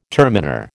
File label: "terminer", American